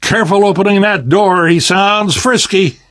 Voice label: tank in train yard, survivor voice; Left 4 Dead 2; Source Engine; video games; namvet

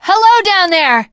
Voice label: initial meeting, teen girl

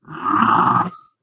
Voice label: angry

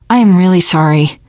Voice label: sorry, teen girl voice; survivor voice

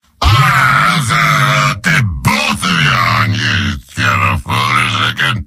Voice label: demoman gibberish; mvm

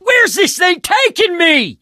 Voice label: charger running with player, survivor voice

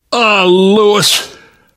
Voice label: grief manager, survivor voice; source engine; left 4 dead 2; namvet

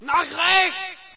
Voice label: german right, player; Source Engine; dod

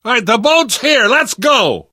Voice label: arrive boat initial, survivor voice; source engine; left 4 dead 2; namvet

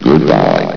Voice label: "goodbye", vox; Source Engine; bshift

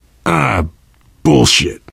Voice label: swear, player survivor voice biker

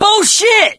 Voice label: reaction negative, survivor voice mechanic; source engine; left 4 dead 2

